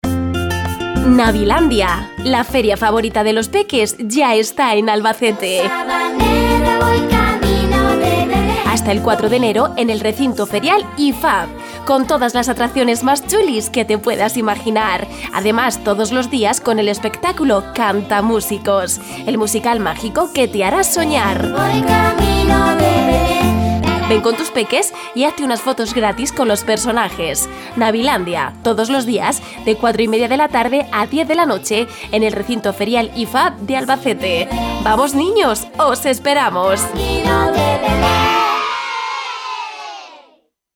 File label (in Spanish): MUJER